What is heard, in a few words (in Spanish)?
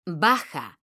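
"BAJA" Spanish, female; mekanta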